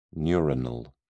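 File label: "neuronal", British English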